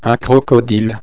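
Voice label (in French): "crocodile", French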